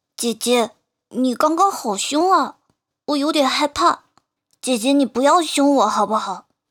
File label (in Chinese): 正太撒娇